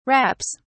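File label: "wraps", English, British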